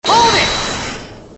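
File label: "hold it" English; phoenix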